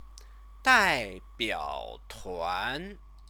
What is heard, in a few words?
"daibiaotuan", Chinese; 代 表 团; delegation; noun; Chinese lessons